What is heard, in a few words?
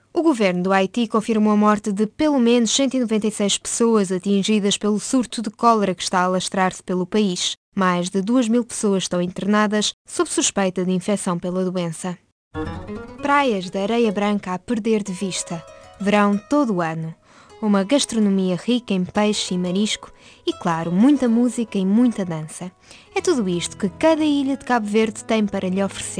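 Portuguese Europe, General; GoLocalise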